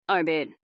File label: fem